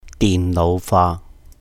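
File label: "dihn nóuh fa", Cantonese; computerization; 電腦化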